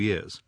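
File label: yearS, Standard Southern British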